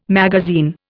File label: "magazine", Indian